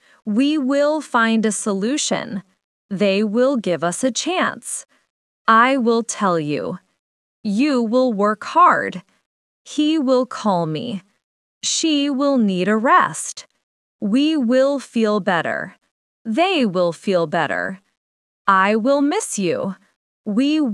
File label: AI speech